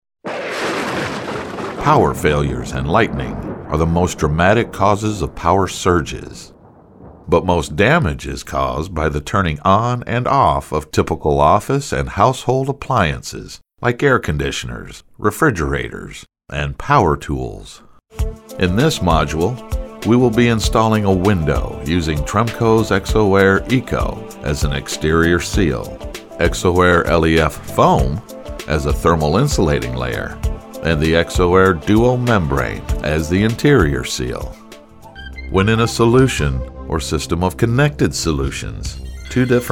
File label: Explainer